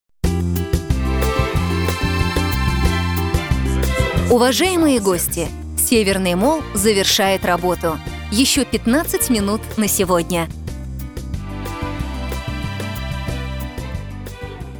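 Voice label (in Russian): Женщина, Среднего возраста, Русский, Бархатный, Средний; АТС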